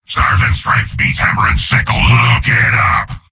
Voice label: soldier domination heavy; source engine; tf; mvm

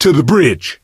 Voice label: misc directional, coach, survivor voice

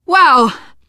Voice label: hurrah, teen girl, survivor voice

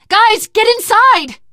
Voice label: stay together inside, survivor voice teen girl; source engine; left 4 dead 2

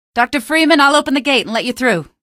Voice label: open gate, female; hl2; coast; odessa; Source engine

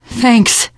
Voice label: thanks, teen girl

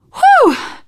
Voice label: hurrah, teen girl, survivor voice; source engine; left 4 dead 2

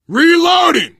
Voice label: reloading, biker, survivor voice; source engine; left 4 dead 2

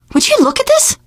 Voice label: look here, teen girl voice; survivor voice

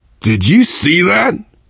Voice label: violence awe, player survivor voice biker; source engine; left 4 dead 2

